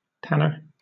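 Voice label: "tanner", British English; pronunciation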